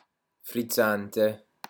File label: "frizzante", pronunciation wine words